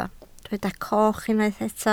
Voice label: "coch", Welsh